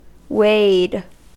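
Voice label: "wade", American English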